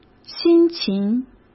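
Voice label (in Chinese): "辛勤", Mandarin Chinese; hsk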